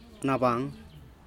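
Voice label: triste, Teotitlán del Valle Zapotec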